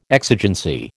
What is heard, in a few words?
"exigency", American